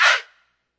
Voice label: one nice hey, male; MALE MISC

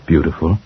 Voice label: "beautiful", male; MALE MISC

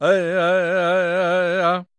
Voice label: native dine vox, Native American

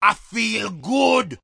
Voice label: i feel good, Rastafarian

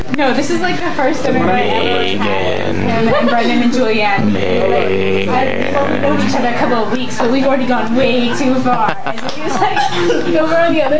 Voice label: evil conversation; SPOKEN WORD

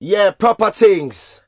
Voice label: proper things, Rastafarian

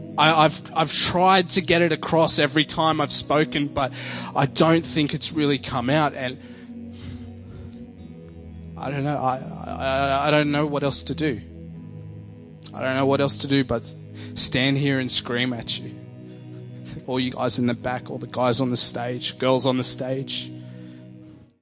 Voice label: dont know what to do but scream at you, preaching; Evangelists and Preaching and Religious

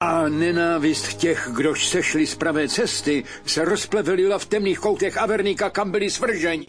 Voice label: russian, male spoken word

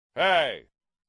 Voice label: male "hey"; single hits

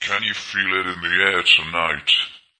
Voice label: "can you feel it in air", male; MALE MISC

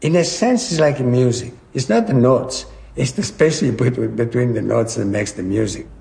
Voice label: spaces between notes make music, male spoken word; MALE MISC

